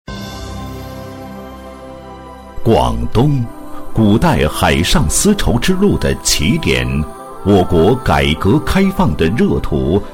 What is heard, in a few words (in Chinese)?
男 专题 海事局, 国语男声配音 大气抒情; 广东海事局